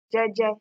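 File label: "jeje", Nigerian Pidgin, female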